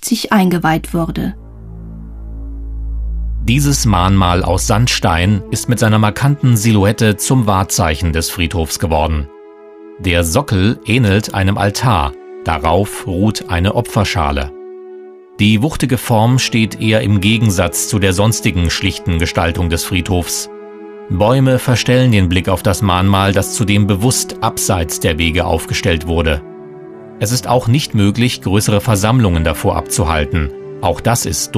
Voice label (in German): Audioguide Waldfriedhof Halbe